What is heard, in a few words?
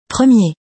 "Premier", English